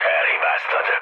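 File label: Hungarian bomb failure mood low, speech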